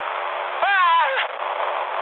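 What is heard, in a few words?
German damaged pilot, speech; War Thunder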